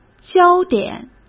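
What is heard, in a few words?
"焦点", Mandarin Chinese; chinese-flashcards; hsk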